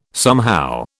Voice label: "somehow", British

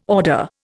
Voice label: "order", Indian

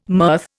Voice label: "mirth", Indian

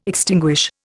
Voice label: "extinguish", Indian

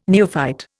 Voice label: "neophyte", Indian

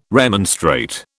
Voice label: "remonstrate", British

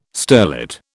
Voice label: "sterlet", British

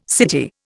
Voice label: "city", Indian

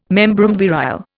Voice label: "membrum virile", Indian

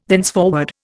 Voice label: "thenceforward", Indian

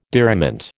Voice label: "diriment", American

